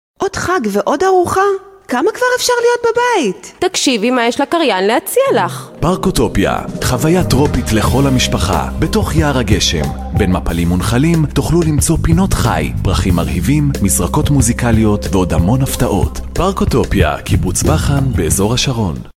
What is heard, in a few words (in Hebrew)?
קריינות; פארק אוטופיה